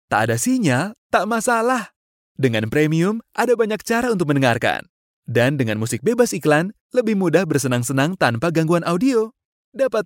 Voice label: indonesian male showreel, Upbeat Bright Indonesian Male